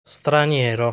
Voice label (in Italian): "Straniero", Italian